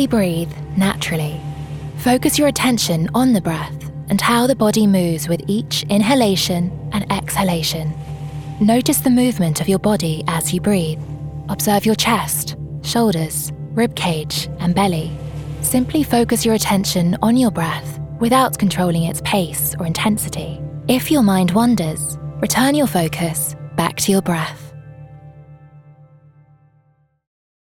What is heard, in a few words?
female english meditation narration; Devine Voices